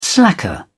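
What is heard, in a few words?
"slacker", British English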